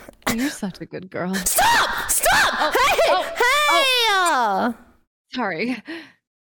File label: squeak good girl; cotton